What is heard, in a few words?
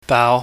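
"baau", Cantonese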